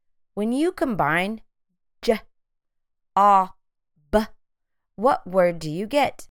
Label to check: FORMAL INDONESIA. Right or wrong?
Wrong